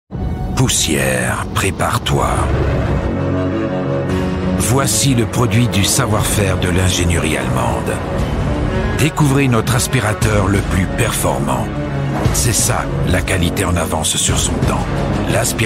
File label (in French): Publicite; trailer; MIELE